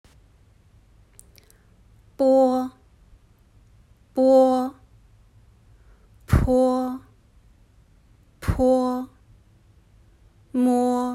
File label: Pinyin initials; hsk1